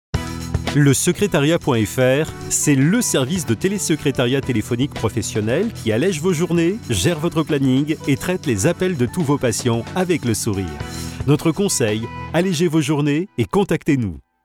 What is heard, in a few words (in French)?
NOTORIETE VOIX OFF; pub